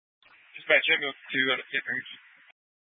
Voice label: Fire Dispatch